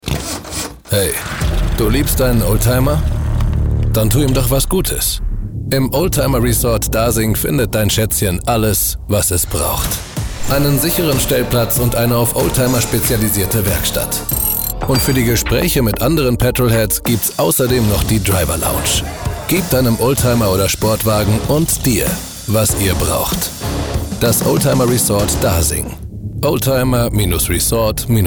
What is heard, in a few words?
German